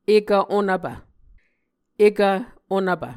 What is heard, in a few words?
"ekv onvpv", muscogee